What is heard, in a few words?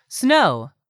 "SNOW", english; 3000 words